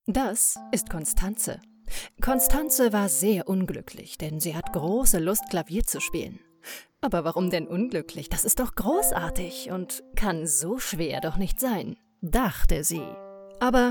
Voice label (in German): erklaerfilm; findyourtone